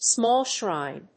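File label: "Small Shrine", English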